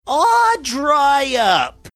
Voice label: saying aw dry up funny